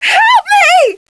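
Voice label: npc female help me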